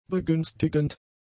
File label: "begünstigend", German; adjectives